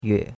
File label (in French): "yue3", chinois; Cours-de-Chinois